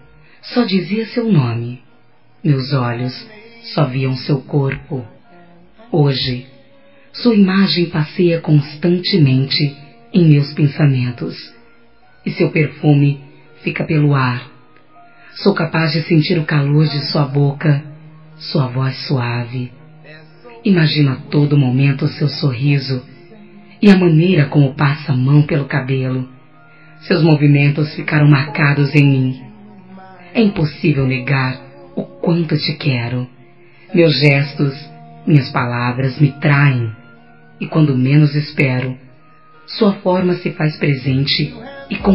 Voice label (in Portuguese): Tele Mensagem Desejo Por Voce (Voz Feminina); COMEMORATIVAS